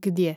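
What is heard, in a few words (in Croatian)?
"gdje", hrvatski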